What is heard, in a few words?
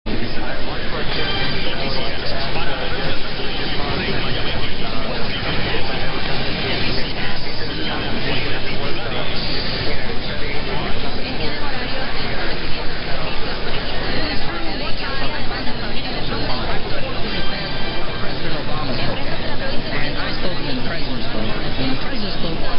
Radio Cuba; 1450 Radio Mayabeque